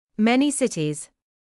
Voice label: "many cities", English